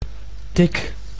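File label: "tic", Dutch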